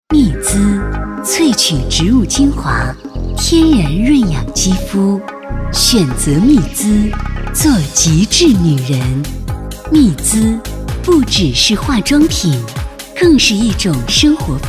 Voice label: 广告 成熟; 幂资

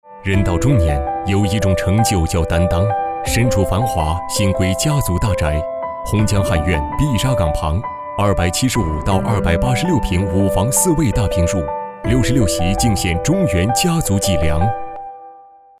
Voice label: 广告 大气讲述感; 宏江瀚苑

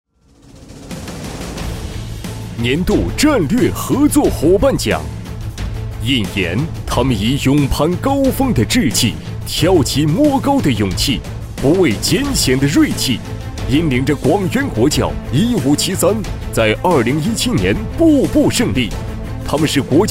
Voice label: 【颁奖】霸气; 颁奖稿; 国窖1573

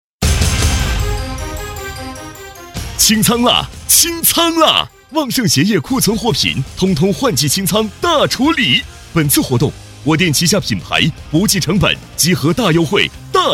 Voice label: 促销 男 激情有力; 旺盛鞋业

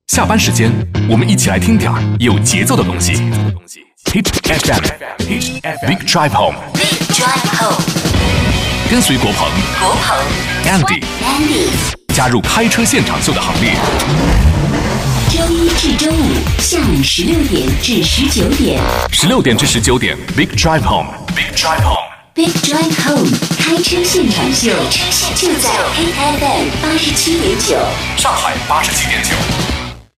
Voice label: 电台; HITFM

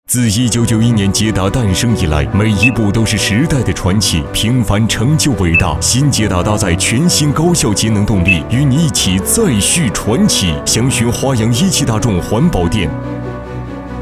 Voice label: 广告 冷静洒脱, 男; 捷达

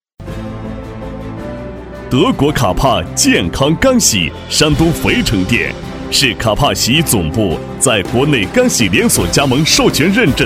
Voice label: 专题 男; 卡帕干洗

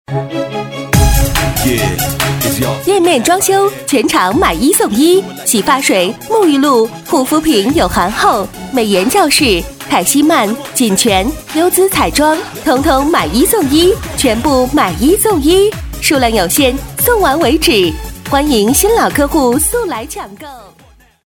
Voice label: 促销 店面装修全场 女 激情

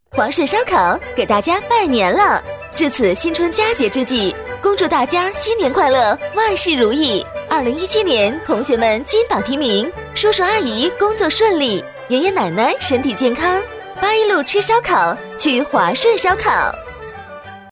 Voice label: 餐饮新年给大家拜年了女-喜庆

